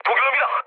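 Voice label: Korean enemy detected mood med, speech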